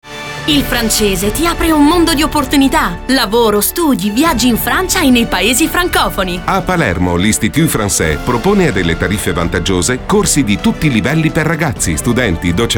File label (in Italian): spot radiofonico